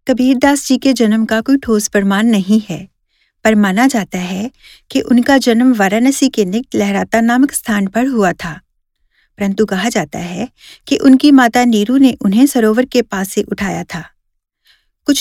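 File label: Hindi, General; GoLocalise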